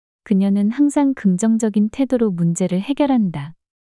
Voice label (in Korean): 그녀는 항상 긍정적인 태도로 문제를 해결한다 문장 여자